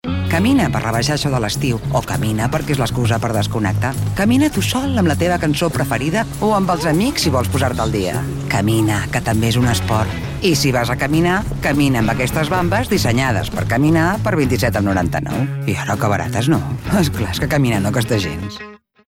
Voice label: female catalan sports comercial; ideasonora